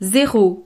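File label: "zero", French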